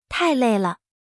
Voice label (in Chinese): "太累了" zh-cn female; everyone-can-use-english